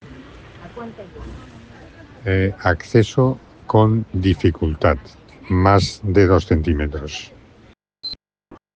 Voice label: Voice message